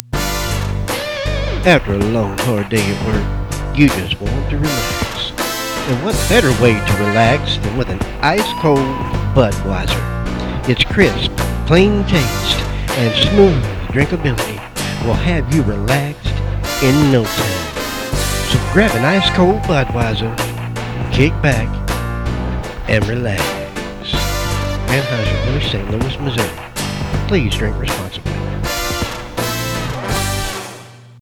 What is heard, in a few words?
Commercial